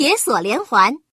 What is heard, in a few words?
iron chain, female; FreeKill; maneuvering